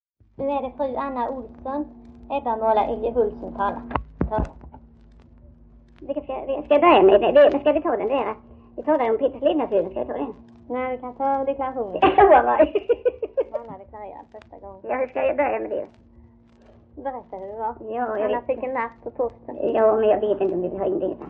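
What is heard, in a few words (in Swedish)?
Öljehult Blekinge, dialekter; Folkminnen; Svenska sagor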